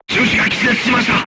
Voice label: voice message gunlayer contused, crew tanks japanese